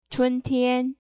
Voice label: "spring", mandarin

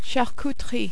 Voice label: "charcuterie", French

